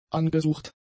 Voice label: "angesucht", German; adjectives

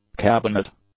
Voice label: "cabinet", English; nouns

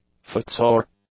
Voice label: "footsore", english; adjectives

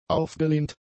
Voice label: "aufgelehnt", German; adjectives

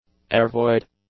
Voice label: "airvoid", English; adjectives

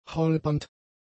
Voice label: "holpernd", German; adjectives

